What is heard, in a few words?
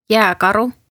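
"kääkaru", Estonian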